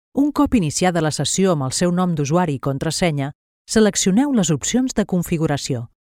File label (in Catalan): elearning, locutora